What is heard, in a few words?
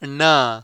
"nna", consonant